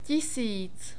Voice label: "tisíc", Czech; numbers